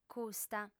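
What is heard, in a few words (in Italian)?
"custa", lingua sarda, female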